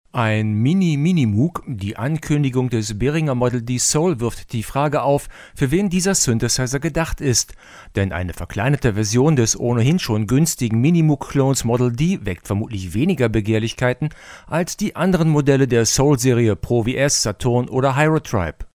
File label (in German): presonus audiobox go mikrofon 50 prozent auf 6dB